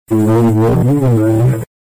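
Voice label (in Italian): "prudente", Italian dialect; prudente agì me!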